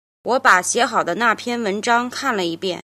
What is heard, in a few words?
"jin", Chinese